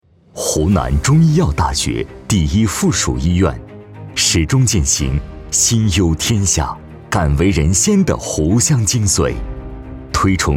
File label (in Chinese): 男 医院 大气 稳重; 湖南中医